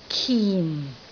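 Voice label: "kin4", Cantonese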